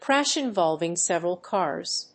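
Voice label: "crash involving several cars", English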